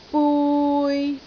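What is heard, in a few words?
"bui3", Cantonese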